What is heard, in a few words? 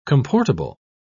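"comportable", English, British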